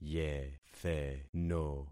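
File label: "yetheno", concatenated syllables; Affixing experiment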